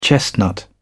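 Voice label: "chestnut", British English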